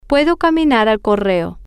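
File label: I can walk to post office, Spanish, female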